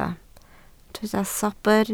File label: "sobr", Welsh